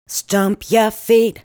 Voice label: "Stomp Your Feet", vocal phrases; Ultimate Vocals